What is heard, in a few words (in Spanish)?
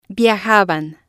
"viajaban", spanish